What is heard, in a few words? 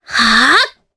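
Vox Casting Japanese; Requina; kingsraid audio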